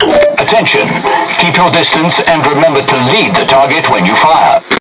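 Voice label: Speech Tutorial Task, British English; World Of Warships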